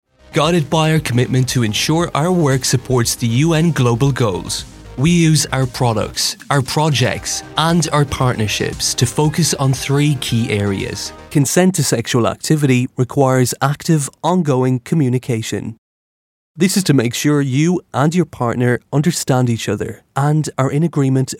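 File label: irish voiceover corporate, male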